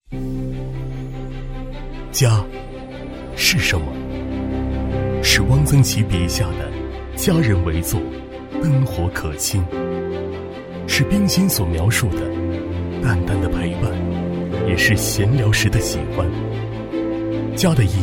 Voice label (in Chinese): 男 房地产宣传 温暖; 御景房产; 激情大气